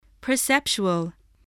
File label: "perceptual", English, voice